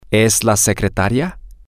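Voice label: are You Mrs Garcia The Secretary, Spanish, male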